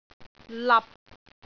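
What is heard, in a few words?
"lap6", Cantonese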